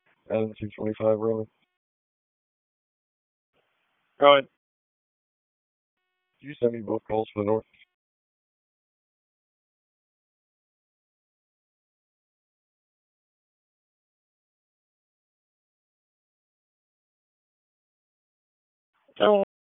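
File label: Police Northeast District Dispatch, p25; Raleigh; wake p25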